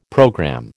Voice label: "programme", American

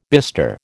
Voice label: "bistre", American

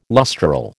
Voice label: "lustral", American

